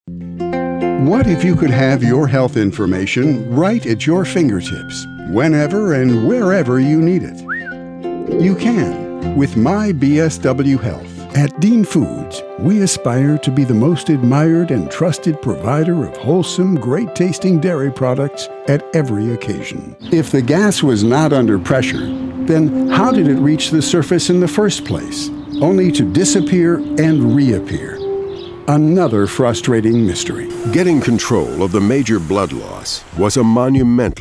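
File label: Narration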